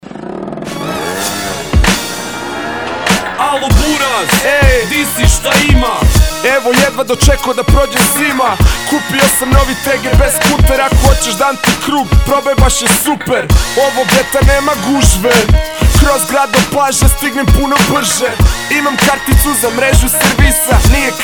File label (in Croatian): reklama